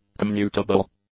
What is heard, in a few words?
"commutable", English; adjectives